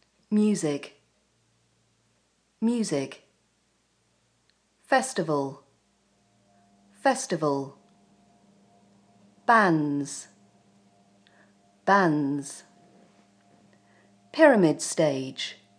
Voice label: British accent pronunciation; Glastonbury